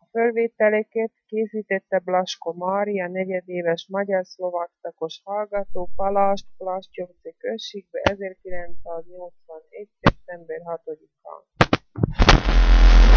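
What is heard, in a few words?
dialect collection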